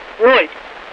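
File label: Russian speech, Mi-8MT RI65; DCS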